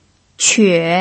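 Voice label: "que", third tone, Mandarin Chinese pinyin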